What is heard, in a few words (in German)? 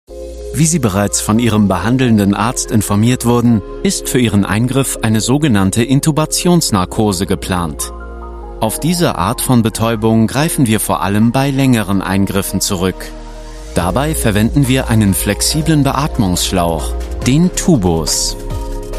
Erklärfilm medizinisch